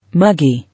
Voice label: "Muggy", English